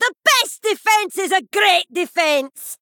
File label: Female Dwarf